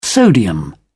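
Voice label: "sodium", British English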